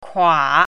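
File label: "kua3", Mandarin Chinese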